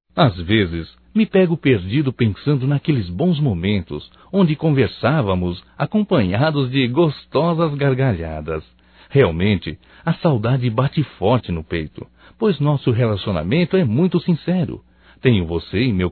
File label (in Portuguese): SAUDADES AMIGA DISTANTE, Só Voz, masc; Telemensagens; Telemensagens 2017